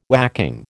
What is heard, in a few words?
"whacking", American